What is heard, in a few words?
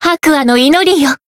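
Voice heal, Japanese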